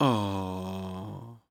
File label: Human Male Ahh Look At The Baby Ahh